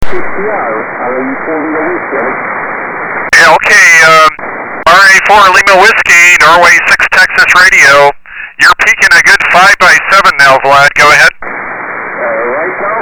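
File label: ssb, 160m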